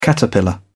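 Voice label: "caterpillar", British English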